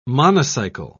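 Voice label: "monocycle", English, British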